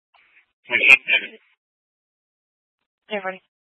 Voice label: Sheriff Dispatch; Mesa County